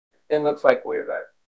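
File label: Voice Acting, Spanish, male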